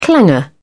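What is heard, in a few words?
"clanger", British English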